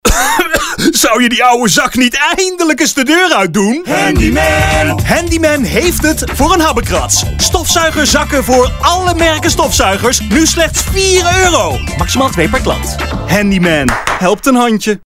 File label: hard sell; Handyman